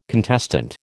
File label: "contestant", American